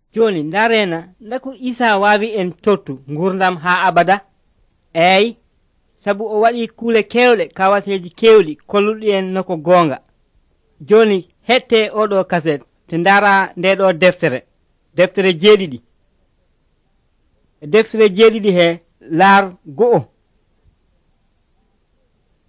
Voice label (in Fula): pulaar fulacunda, drama